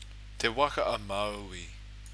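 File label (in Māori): "te waka a maui", Māori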